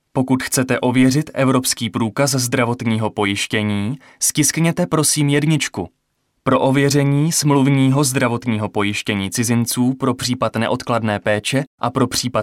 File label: male Czech IVR